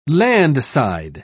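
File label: "landside", British English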